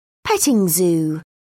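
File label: "petting zoo", English, British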